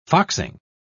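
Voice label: "foxing", English, British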